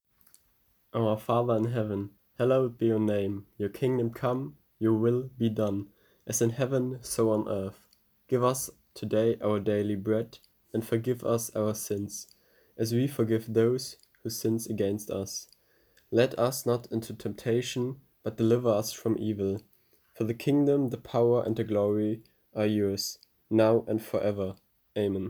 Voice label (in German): Vaterunser englisch; Vater unser auf englisch; Familienimpuls